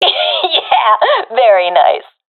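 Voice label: sees enemy killed, professional female; vo; agents; Source Model Packs; Counter Strike Model Pack; CS2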